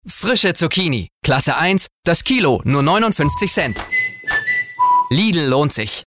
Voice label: Werbung; Lidl

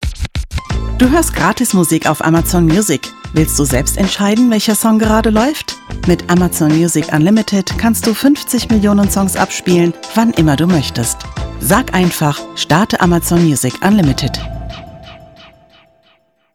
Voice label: werbung; amazon music

